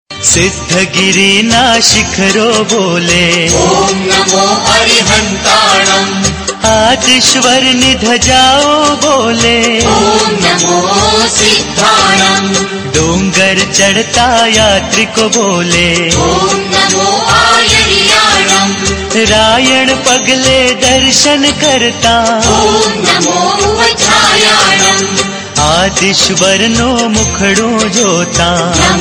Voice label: Shanti mantra